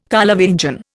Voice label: "Carlovingian", Indian

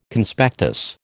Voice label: "Conspectus", American

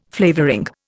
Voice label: "flavouring", Indian

